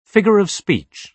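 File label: "figure of speech", British English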